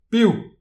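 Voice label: "piv", Breton; petit precis de prononciation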